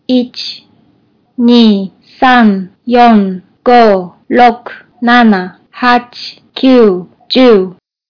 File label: japanese numbers